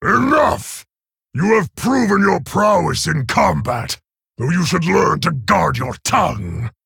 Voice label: Male Orc; Garrosh; Rokara

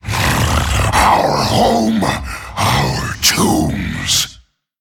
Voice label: Male Mummy (Tol'vir) Play

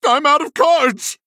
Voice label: Male Human No Cards

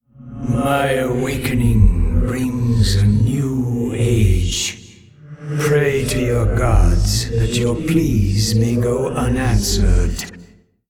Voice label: Male Old God Creature

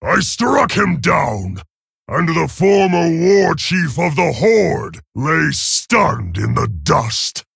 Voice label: Male Orc; Garrosh; Victory

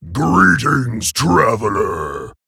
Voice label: Male Orc Emote Greetings

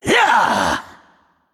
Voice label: Male Naga Attack

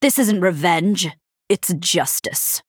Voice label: Female Human Play

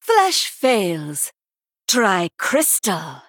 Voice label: female blood elf play

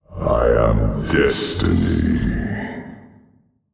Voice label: Male Old God Creature Attack

